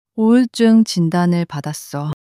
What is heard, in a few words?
sadness